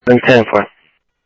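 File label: Sheriff East County, scanner audio trunk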